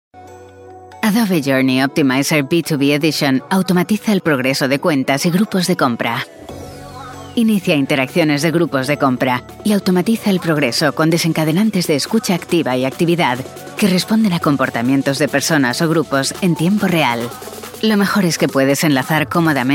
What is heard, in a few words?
spanish corporate